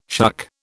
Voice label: "shuck", British